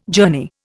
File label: "journey", Indian